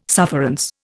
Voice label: "sufferance", Indian